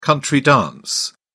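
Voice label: "country-dance", British English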